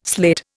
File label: "sleight", Indian